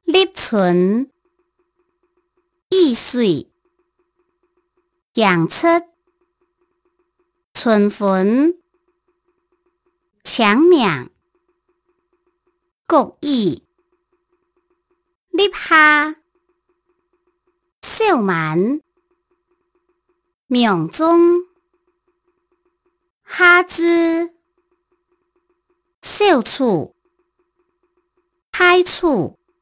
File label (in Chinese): 四縣腔